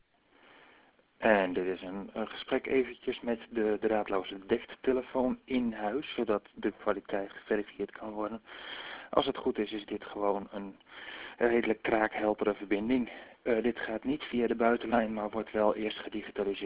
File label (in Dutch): HTC touch diamond opname met DECT